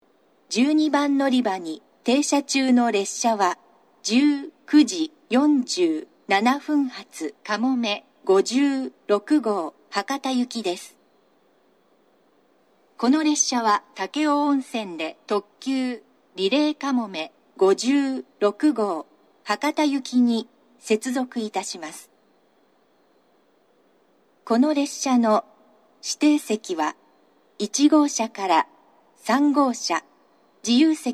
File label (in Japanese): 長崎 西九州新幹線ホーム 自動放送, 12番のりば停車中放送; かもめ56号 博多行き）※停車駅が二日市まで